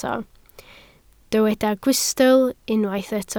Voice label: "gwystl", Welsh